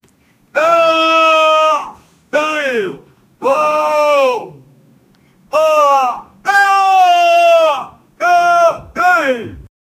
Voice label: a manly japanese man repe

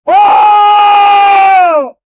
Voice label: voice acting

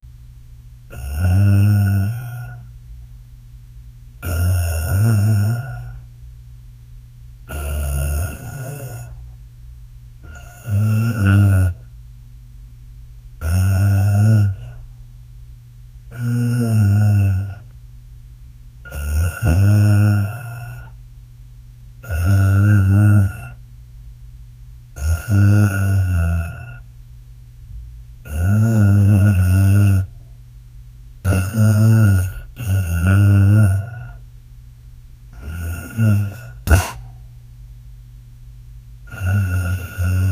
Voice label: an old man muttering gibb, dialogue

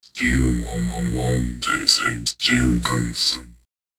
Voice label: futuristic sci fi epic vo, announcement

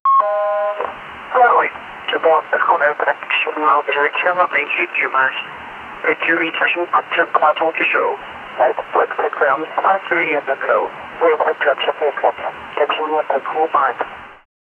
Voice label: an old 1980s air traffic, atc communication

